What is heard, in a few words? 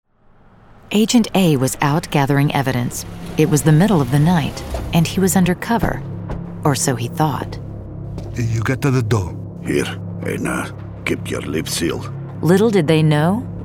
Radio; WhistleBlower; Colon Cancer Coalition; Safe Word